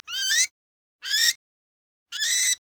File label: emote joke vo; quinn valor